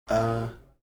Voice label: "a", Heiltsuk